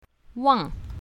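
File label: "wang4", pinyin, south, female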